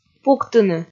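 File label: "пуктыны", Komi, female; kpv audio dict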